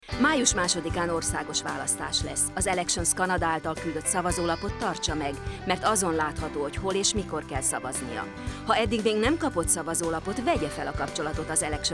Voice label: hungarian